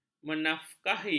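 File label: "menafkahi", Malay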